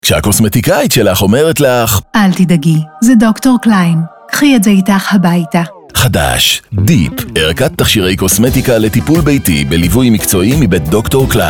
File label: hebrew commercial